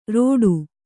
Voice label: "ರೋಟು", Kannada